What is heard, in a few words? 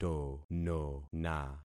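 "tonona", concatenated syllables; Affixing experiment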